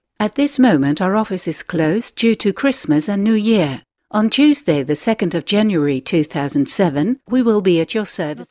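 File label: Dutch, English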